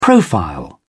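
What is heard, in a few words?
"profile", British English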